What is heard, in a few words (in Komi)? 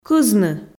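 "кызны", Komi, female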